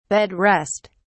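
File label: "bed rest", British English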